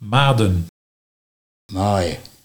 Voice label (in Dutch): "Maaie", Nieuw lekkerlands dialect